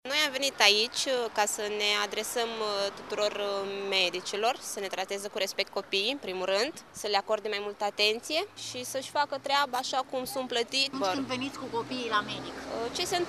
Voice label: vox pop